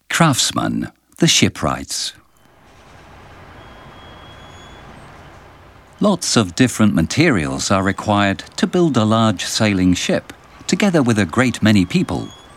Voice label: audioguia english